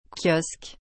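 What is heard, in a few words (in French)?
"kiosque", French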